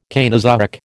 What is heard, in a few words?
"Cainozoic", American